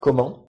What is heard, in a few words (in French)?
"comment", pronunciation fr